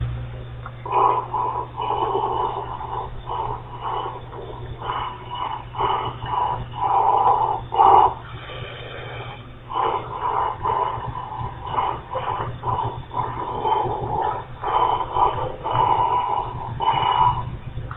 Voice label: speak thinking in ear recording